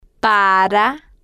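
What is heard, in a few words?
"para", Spanish, slow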